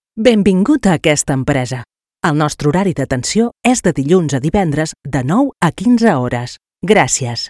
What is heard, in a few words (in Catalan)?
adulta, locutora; centraleta